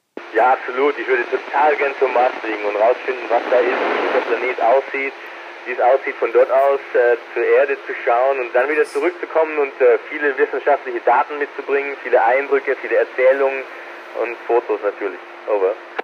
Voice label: ISS audio